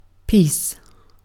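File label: "peace", British English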